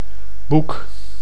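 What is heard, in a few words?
"boek", Dutch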